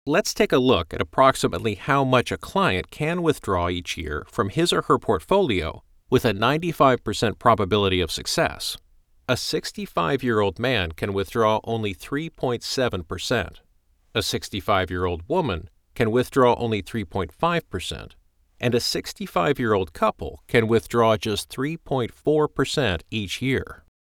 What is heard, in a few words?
eLearning, Financial Concepts